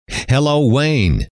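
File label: hello, male; trainer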